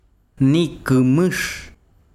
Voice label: besiro gusano, chiquitano